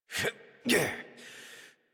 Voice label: Horse Dismount Adult; Warframe; Duviri

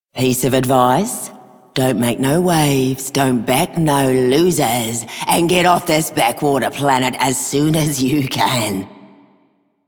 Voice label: Female Generic, Female Two, en; Dialog; Warframe; Solaris Venus

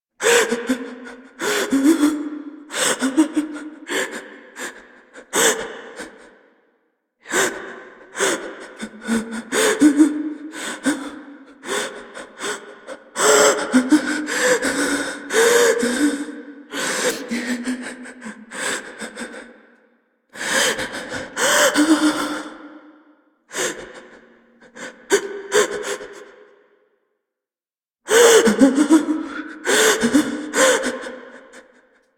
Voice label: Class Intro Student Female C, Student Whimper; New War; Zariman

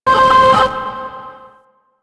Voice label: Glitched Voice; Ambience; Warframe; Orokin Moon

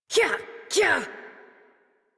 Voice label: Horse Sprint Adult; Warframe; Duviri

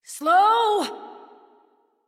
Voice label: Horse Stop Adult; Warframe; Duviri

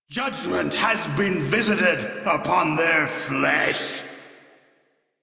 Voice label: Crew Enemy Defeat, Male; Warframe; Railjack Crew; Arbiters Hexis

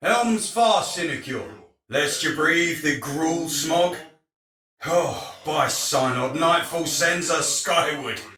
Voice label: Patrol Spoken Soldier Male; Dialog; Barks; Battle; Sinecure

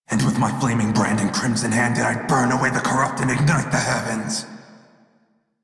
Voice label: Crew Gun Kill Fighter Male en; Dialog; Warframe; Railjack Crew; Red Veil

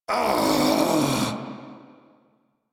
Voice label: Death Male en; Railjack Crew; Arbiters Hexis

